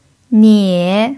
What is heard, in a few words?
"nie3", mandarin pinyin